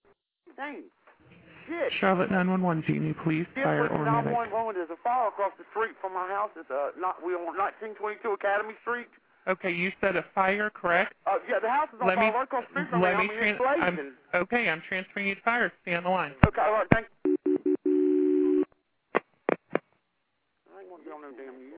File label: 911 call; Third Child Dies In East Charlotte House Fire That Claimed Mom, Two Other Kids Last Month